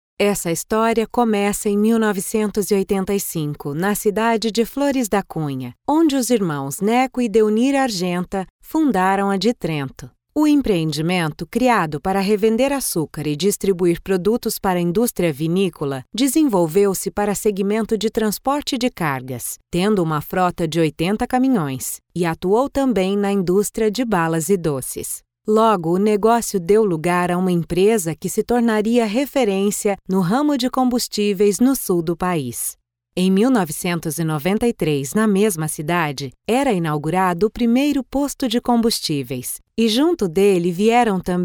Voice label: brazilian portuguese female, narrative documentary